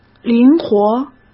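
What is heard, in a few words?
"灵活", Mandarin Chinese; chinese flashcards; hsk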